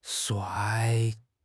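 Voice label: "shuai4", Mandarin Chinese; HSK; HSK4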